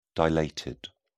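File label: "dilated", British English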